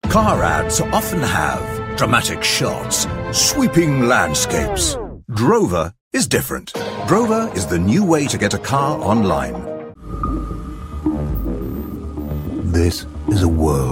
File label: British Commercial; TaDa